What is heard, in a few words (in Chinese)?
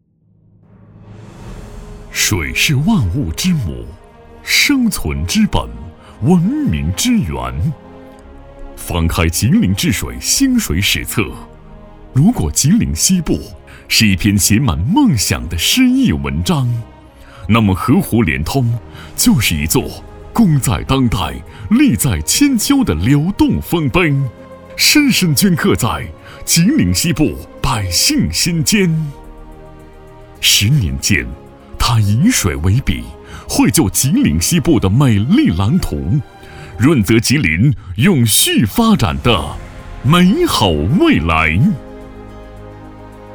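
大气 抒情, 普通话, 男, 中年; 吉林西部供水工程建设十年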